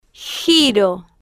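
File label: "giro", Spanish, female, slow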